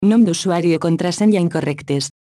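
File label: Username Or Password Incorrect, Catalan; AXEFIAudio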